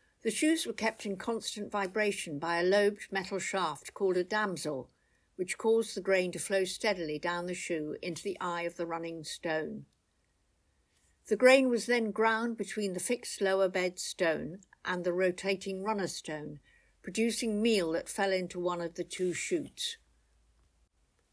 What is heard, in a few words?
Mill English, Carew tidal mill audio tour; carew castle